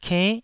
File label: "kenn9", taiwanese